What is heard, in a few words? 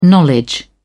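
"knowledge", English, British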